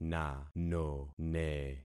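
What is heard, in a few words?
"nanone", concatenated syllables; Affixing experiment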